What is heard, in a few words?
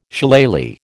"Shillelagh", American